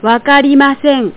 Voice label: wakari masen, japanese voice

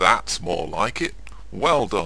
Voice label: chat

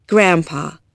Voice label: grandpa

